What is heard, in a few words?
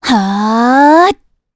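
Vox Casting, Korean; Valance; kings raid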